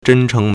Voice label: "zhen1cheng2", Chinese

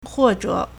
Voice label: "huo4 zhe3", Chinese

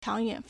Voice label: "chang2yuan3", Chinese

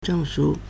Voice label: "zheng4shu1", Chinese

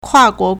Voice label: "kua4guo2", Chinese

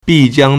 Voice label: "bi4jiang1", Chinese

